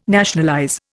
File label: "nationalize", Indian